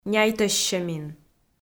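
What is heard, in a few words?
"няйтӧссьӧмин", Komi, female; kpv audio dict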